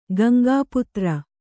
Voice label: "ಗಂಗಾಪುತ್ರ", Kannada

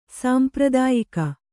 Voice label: "ಸಾಂಪ್ರದಾಯಿಕ", Kannada; sāmpradāyika; traditional.

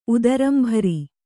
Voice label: "ಉದರಂಭರಿ", Kannada

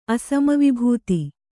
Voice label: "ಅಸಮವಿಭೂತಿ", Kannada

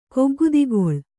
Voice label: "ಕೊಗ್ಗುದಿಗೊಳ್", Kannada

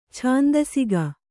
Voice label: "ಛಂದಸಿಗ", kannada

